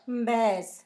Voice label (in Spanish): abeja, Zapoteco; San Pedro Mártir; INALI